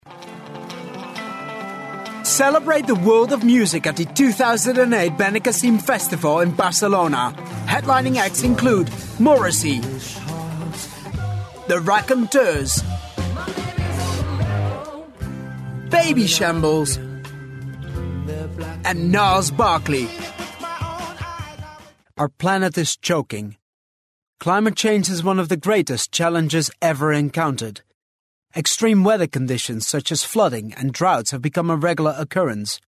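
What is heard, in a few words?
Dutch, male, English Sample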